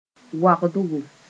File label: "Waogdgo", Mooré